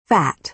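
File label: "fat", American English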